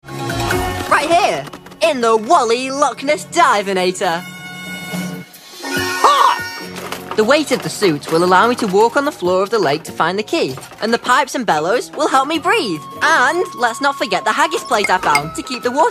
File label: Animation